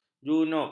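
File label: "junub", Malay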